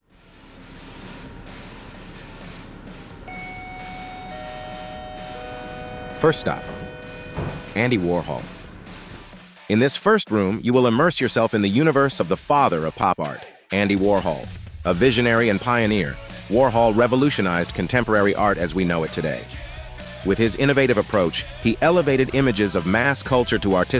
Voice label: introduzione sala english, audioguide; sala warhol